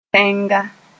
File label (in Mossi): "tẽnga", Moore